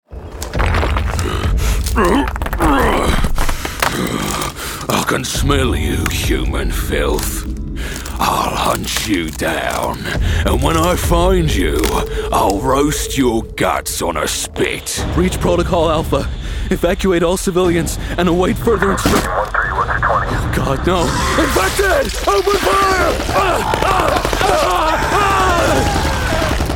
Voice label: english british video games

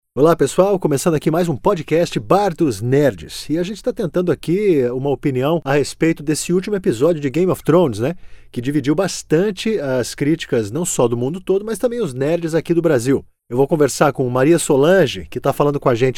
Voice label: portuguese brazilian podcasts

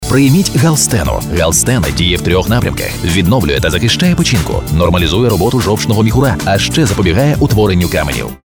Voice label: ukrainian corporate videos

